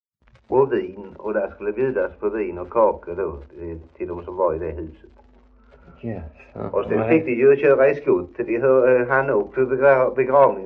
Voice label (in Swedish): Idala, dialekter; svenska sagor; Folkminnen